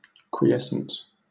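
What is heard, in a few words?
"quiescent", British English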